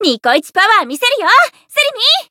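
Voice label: Voice variable chant, Japanese; millecha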